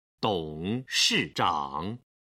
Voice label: "dong shi zhang", Chinese